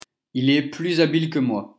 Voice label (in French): il est plus habile que moi prononciation